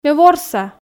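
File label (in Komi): ме ворса, Komi, female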